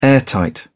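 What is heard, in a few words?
"airtight", British English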